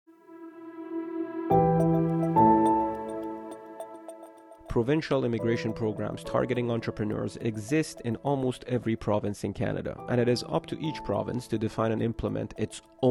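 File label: English, voices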